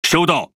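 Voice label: Chinese (Taiwan) Speech Quick Commands Aye Aye; World Of Warships; ZH TW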